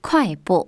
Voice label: chinese walk fast; samsung YP-U5; Audio User Interface